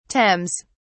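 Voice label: "thames", English, British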